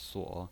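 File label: "suo", Mandarin Chinese pinyin, third tone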